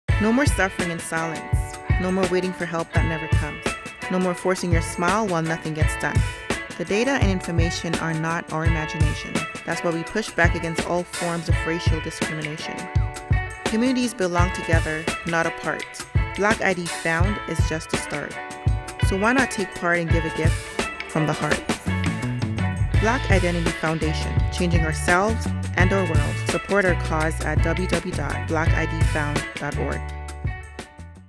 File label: COMMERCIAL